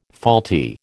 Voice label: "faulty", American

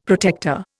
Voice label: "protector", Indian; Dictionary

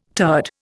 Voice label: "turd", Indian

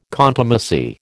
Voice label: "contumacy", American

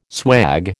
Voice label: "swag", American

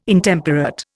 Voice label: "Intemperate", Indian

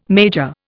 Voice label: "major", Indian

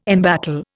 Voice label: "embattle", Indian

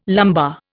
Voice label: "lumbar", Indian

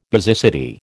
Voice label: "basicity", American

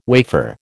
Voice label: "wafer", American

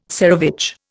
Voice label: "tsarevich", Indian

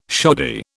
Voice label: "shoddy", British

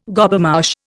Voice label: "Gobemouche", Indian

